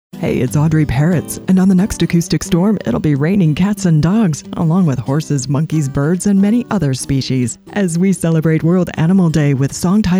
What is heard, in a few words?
PROMO; Acoustic Storm; USRN-FM; kkcl-am